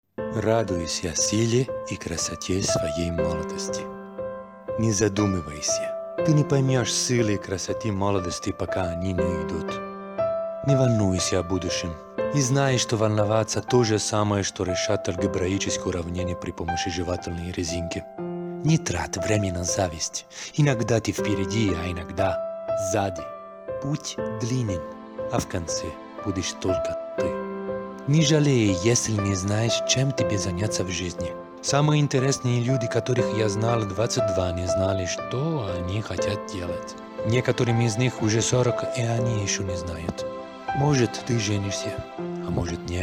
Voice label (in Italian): Promo voce e MUSICA Russo